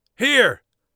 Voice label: here, Warrior Voice; Warrior Voice Pack

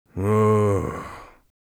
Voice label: tired, mage voice; Mage Voice Pack

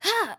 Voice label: attack, RPG Voice; RPG Voice Starter Pack

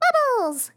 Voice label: bubbles, RPG Voice; RPG Voice Starter Pack

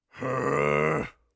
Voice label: irritated, orc voice; Orc Voice Pack

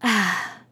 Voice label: healed, RPG Voice; RPG Voice Starter Pack

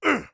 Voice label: defend, orc voice; Orc Voice Pack

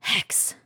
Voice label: hex, RPG Voice; RPG Voice Starter Pack

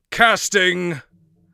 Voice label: casting, mage voice; Mage Voice Pack

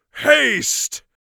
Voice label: haste, mage voice; Mage Voice Pack

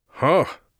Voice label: surprised, Mage Voice; Mage Voice Pack

